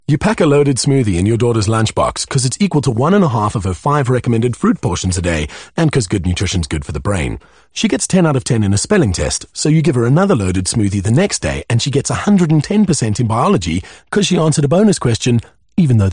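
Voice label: M, English, South African, male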